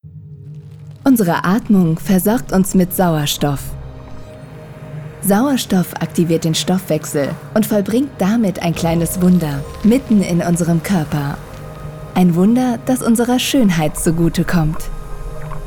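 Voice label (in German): Erklärfilm; Slymionik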